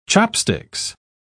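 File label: "chopsticks", British English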